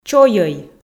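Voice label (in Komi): "чойӧй", Komi, female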